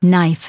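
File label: "knife", US English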